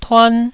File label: "tuan1", Taiwanese